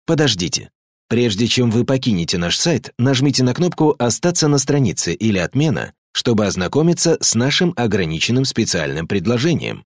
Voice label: IVR Russian Dry